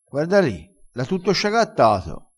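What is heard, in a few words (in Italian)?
"sciagattato", dialetto crespinese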